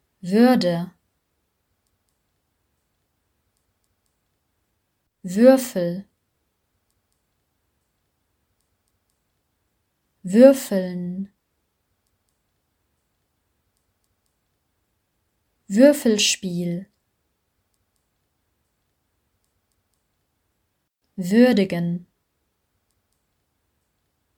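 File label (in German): Wörter Ü kurz Mitte Vokale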